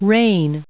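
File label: "rain", English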